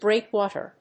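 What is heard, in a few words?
"breakwater", English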